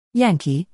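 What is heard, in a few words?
"y", British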